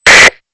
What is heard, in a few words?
radio